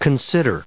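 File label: "considers", US English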